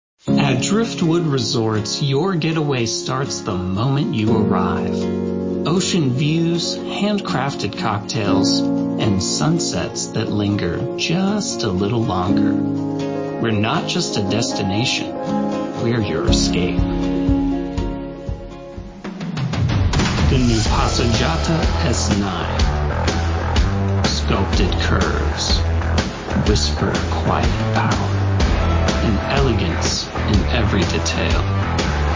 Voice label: Voice Reel, Friendly, Raspy, Light, Bright, & Guy-Next-Door